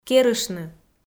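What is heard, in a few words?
"керыштны", Komi, female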